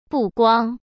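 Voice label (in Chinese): "不光", Mandarin Chinese; hsk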